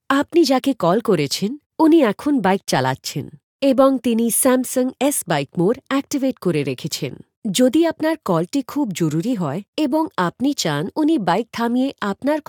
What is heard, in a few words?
guidance bn in, bike mode